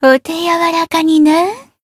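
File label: Voice encounter, Japanese; hoozuki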